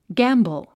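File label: "gamble", English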